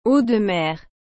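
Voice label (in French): "eau de mer", French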